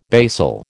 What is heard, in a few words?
"basal", American